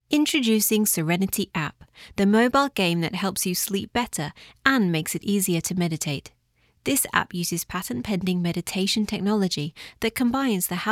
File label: Explainer video